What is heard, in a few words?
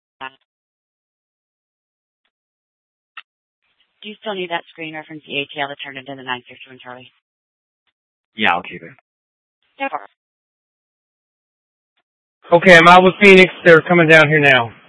Scottsdale PD Sth Dispatch, scanner